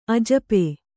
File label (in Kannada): "ಅಜಪೆ", Kannada